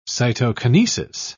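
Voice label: "cytokinesis", British English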